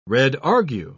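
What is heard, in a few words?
"redargue", English, British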